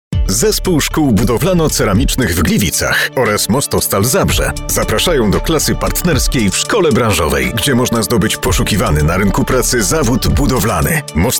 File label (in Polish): spot reklamowy